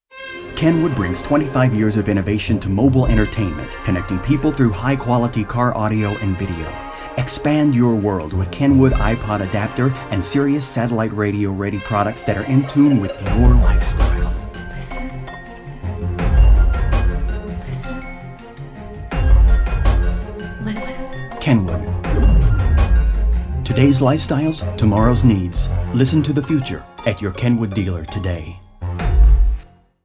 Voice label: spot; Kenwood